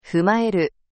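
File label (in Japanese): "fumaeru", Japanese; N4 level words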